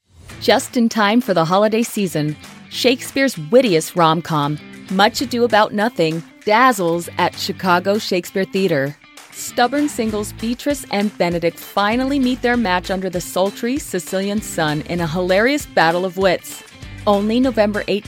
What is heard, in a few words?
Promo